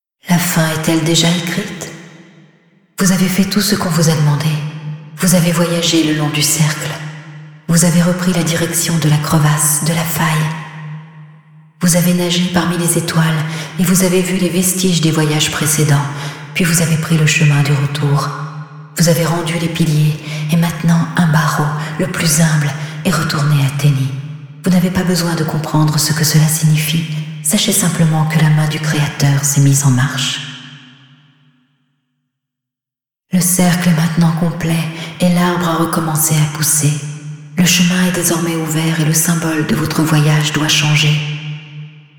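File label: Final Speech french; Yeesha; moul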